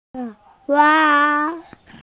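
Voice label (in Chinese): "qing1wa1", Chinese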